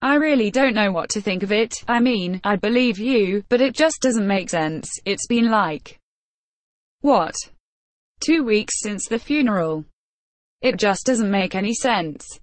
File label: woman; DeadFright